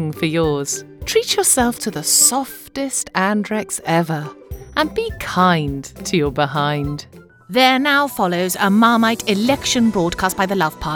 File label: Short commercials reel